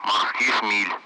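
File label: Speech Russian; Allied Flight; DCS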